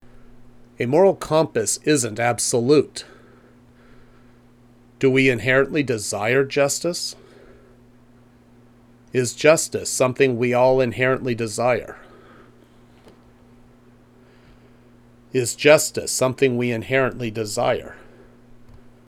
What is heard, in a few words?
Voiceover